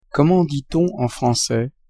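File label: comment dit-on en francais, French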